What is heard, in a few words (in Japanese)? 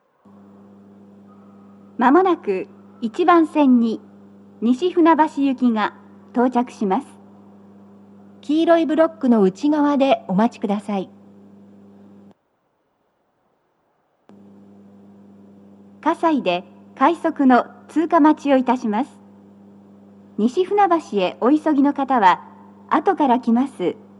接近放送, 女声, 放送は「各駅停車 西船橋行」です。葛西で、快速 西船橋行きの通過待ちを致します。 BOSE天井型での収録です。; 門前仲町